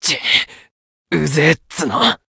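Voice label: Voice win barely, Japanese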